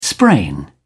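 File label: "sprain", British English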